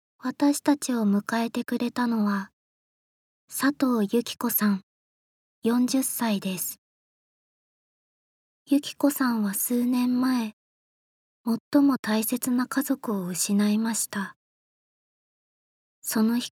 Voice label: Narration